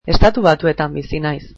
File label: I live in the US, basque